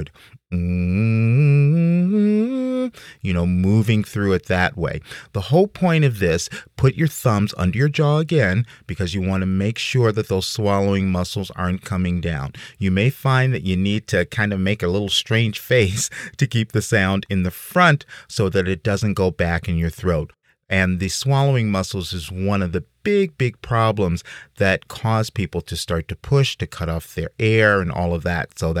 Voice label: female instructions exercise, singing